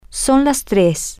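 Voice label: its 300, Spanish, female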